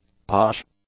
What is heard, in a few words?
"posh", english; adjectives